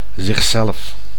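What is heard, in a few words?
"zich zelf", Dutch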